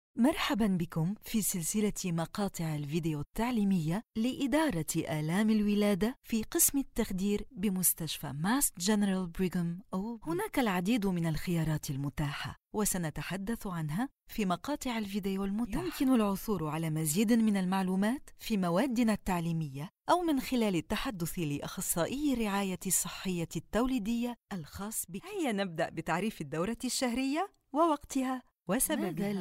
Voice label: elearning

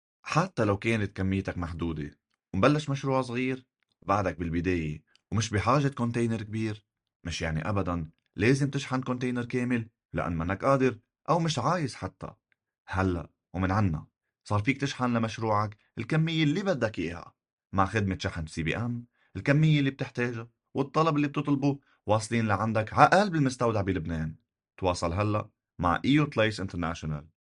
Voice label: lebanese shipping ad

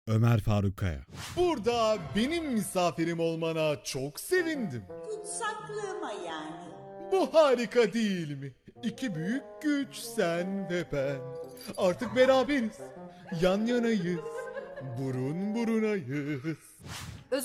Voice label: character

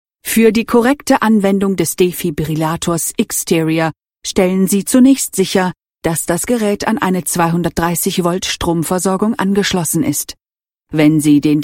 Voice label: e-learning

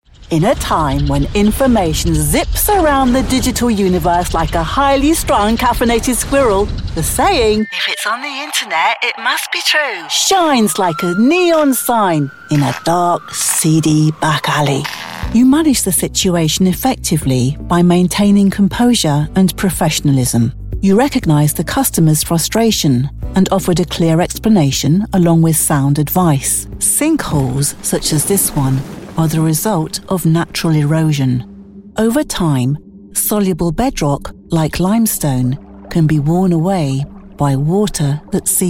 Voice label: corporate

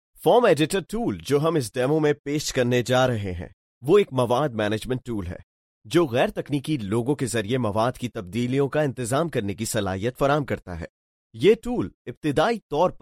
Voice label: urdu narration

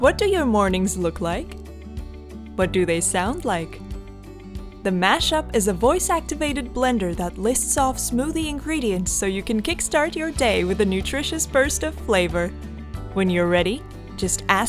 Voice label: eng us blender

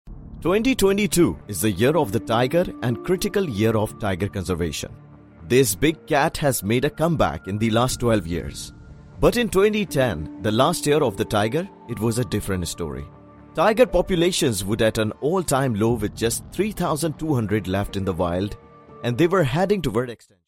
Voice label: video web documentary english indian accent

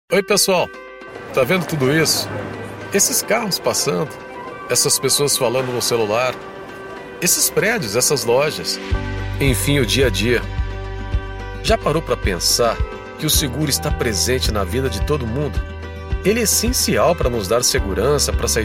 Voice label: conversation natural

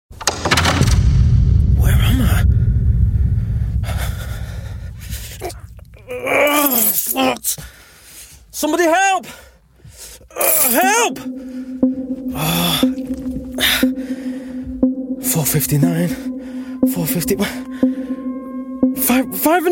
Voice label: acting gaming reel

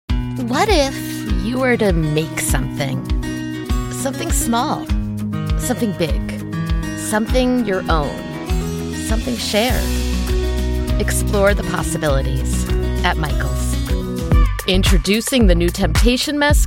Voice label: voice commercial; commercial demo

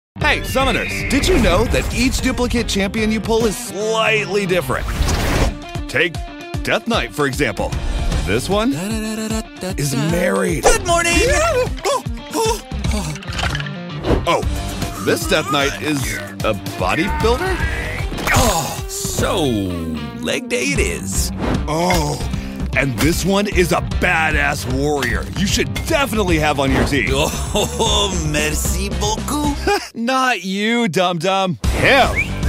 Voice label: commercial; raid shadow legends the one official

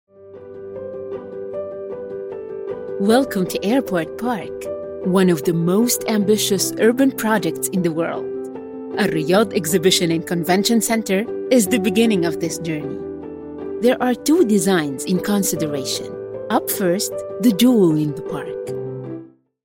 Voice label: eng airport park riyadh

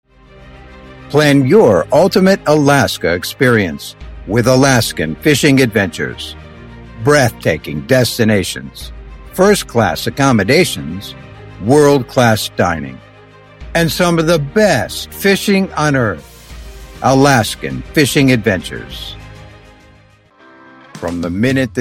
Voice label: commercial

